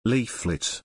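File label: "Leaflet", English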